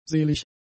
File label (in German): "selig", German; adjectives